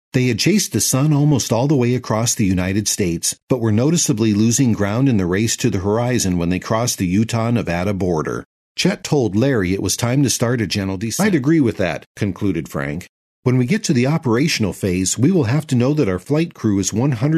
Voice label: Voice Talent Audiobook